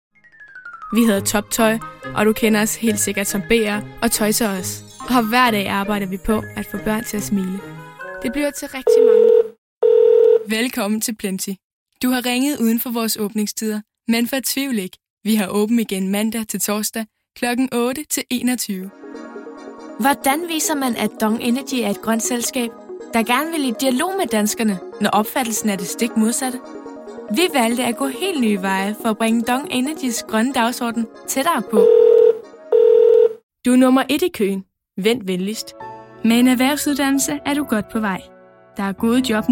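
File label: Danish Commercial; GoLocalise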